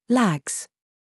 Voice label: DRESS TRAP, minimal pairs